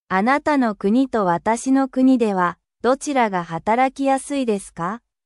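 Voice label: Japanese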